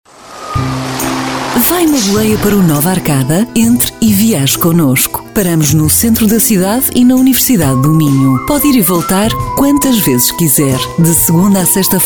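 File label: Portuguese, female, commercial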